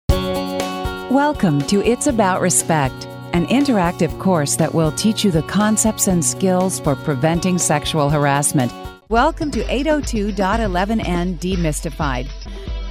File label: eLearning, voiceovers female